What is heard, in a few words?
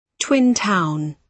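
"twin town", British English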